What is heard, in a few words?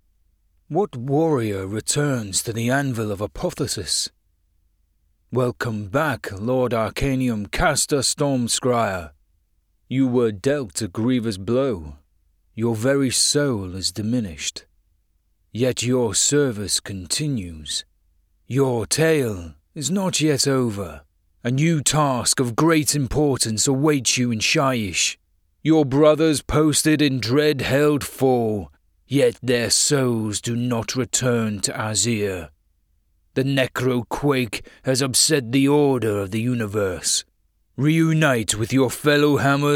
Voiceover, character read; Warhammer Age of Sigmar Tempestfall; Celestant Prime; Character & Animation Read